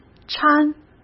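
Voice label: "掺", Mandarin Chinese; chinese-flashcards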